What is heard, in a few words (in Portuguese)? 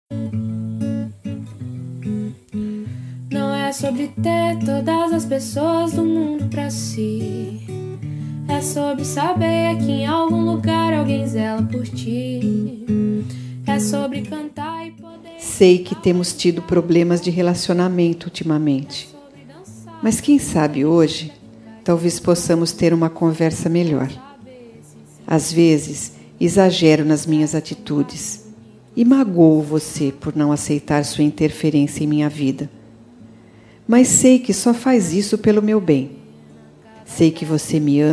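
FILHA PARA MAE RECONCILIACAO female, Telemensagem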